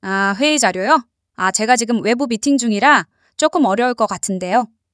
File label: female answer